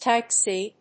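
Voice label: "Type C", English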